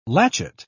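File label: "latchet", British English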